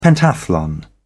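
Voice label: "pentathlon", English, British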